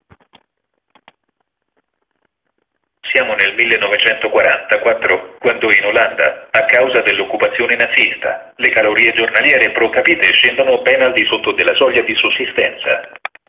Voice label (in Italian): frase maschile